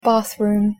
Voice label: pronunciation en bathroom; the home